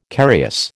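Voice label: "carious", American English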